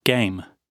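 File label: "game", British English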